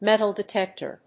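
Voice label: "metal detector", English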